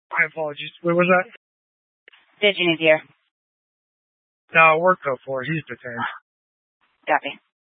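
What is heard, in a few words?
Sheriff Dispatch, Scanner audio recording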